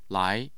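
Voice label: "lai", Mandarin Chinese, tone 2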